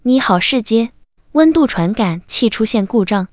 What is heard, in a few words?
zh test